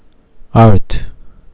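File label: "alto", JPN, male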